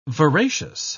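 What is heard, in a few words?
"veracious", British English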